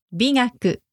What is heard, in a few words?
"bigaku", Japanese; esthetics